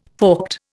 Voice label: "forked", Indian